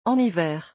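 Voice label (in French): "en hiver", French; vocabulaire; le lexique des vacances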